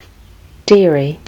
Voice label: "dairy", kiwi english

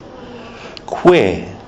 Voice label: "koe", kiwi english

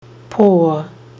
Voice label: "poor", Kiwi English

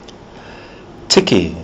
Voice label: "tiki", kiwi english

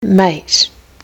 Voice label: "mate", kiwi english

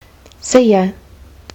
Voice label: "see ya", Kiwi English

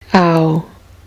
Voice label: vowel in lounge, kiwi english

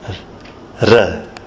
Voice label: r as in ruru, kiwi english

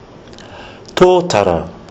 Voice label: "totara", kiwi english

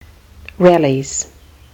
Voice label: "rellies", Kiwi English

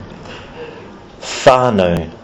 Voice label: "whanau", kiwi english

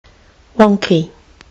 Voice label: "wonky", Kiwi English

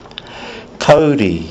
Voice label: "kauri", kiwi english

